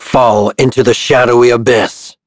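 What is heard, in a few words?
Vox Skill, voices heroes English; Shakmeh; kings raid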